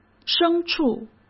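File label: "牲畜", Mandarin Chinese; chinese-flashcards; hsk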